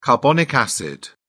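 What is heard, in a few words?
"carbonic acid", British English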